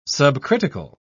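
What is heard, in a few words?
"subcritical", British English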